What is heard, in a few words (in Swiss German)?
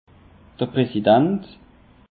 "prasidant", alsace; peche